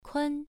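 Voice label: "kun", Mandarin Chinese, first tone, pronunciation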